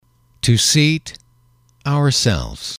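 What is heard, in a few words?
"to seat ourselves", English, male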